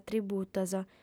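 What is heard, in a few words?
"tributos", female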